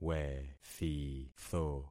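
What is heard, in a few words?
"wethitho", concatenated syllables; Affixing experiment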